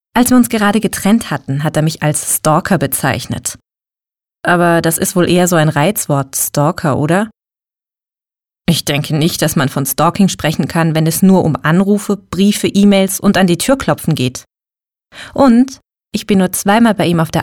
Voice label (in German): Monolog